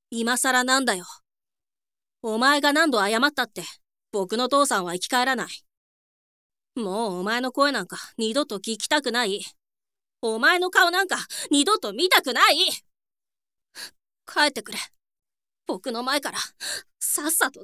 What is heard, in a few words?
boy